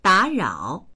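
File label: "da3rao3", Mandarin Chinese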